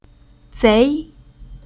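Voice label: "Zei", chinese syllable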